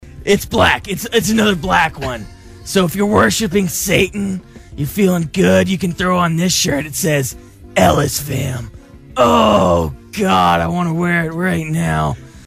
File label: commercials hotdog shirt